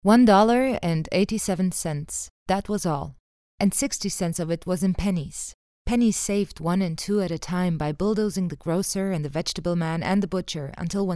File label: EN audiobook